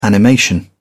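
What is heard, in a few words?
"animation", British English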